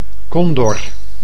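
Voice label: "condor", Dutch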